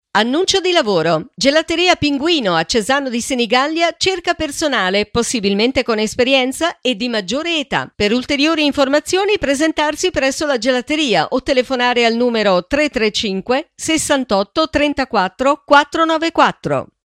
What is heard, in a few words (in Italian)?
annuncio pinguino